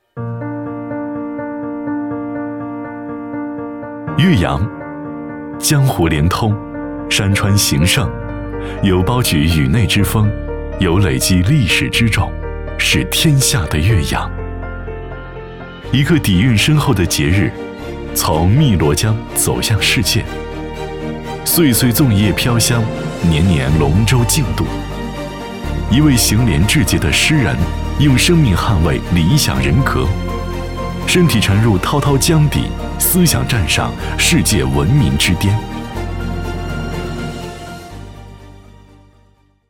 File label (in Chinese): 男 宣传; 岳阳